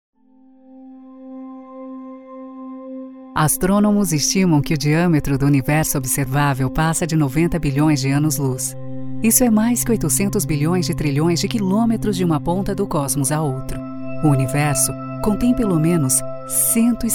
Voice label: portuguese brazilian documentaries

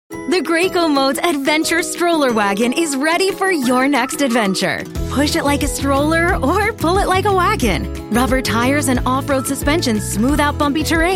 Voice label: english american commercial

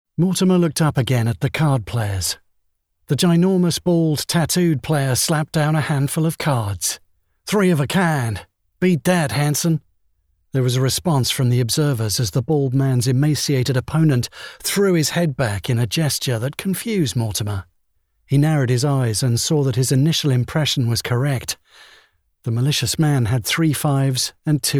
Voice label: english british audiobooks; conversational voice actor with a compelling tone

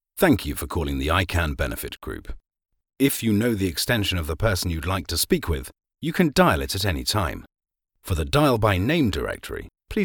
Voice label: english british ivr

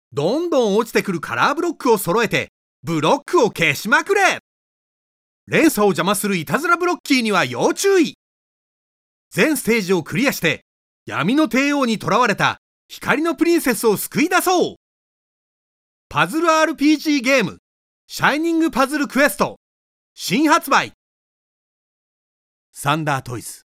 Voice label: Japanese video games

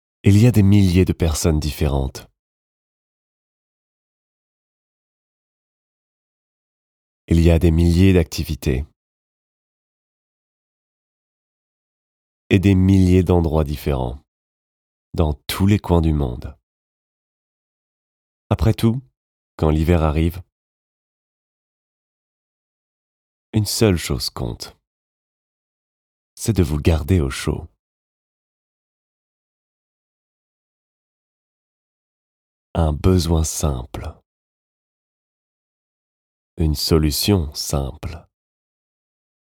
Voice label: french documentaries

